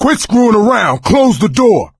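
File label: close the door, male; Left 4 Dead 2; coach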